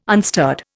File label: "unstirred", Indian